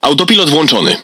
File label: Speech Autopilot Enabled, PL; World Of Warships